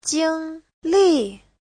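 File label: "jīnglì", Mandarin Chinese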